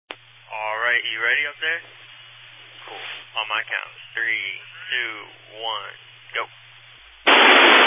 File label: Family Radio Service (FRS)